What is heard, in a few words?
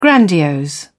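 "grandiose", English, British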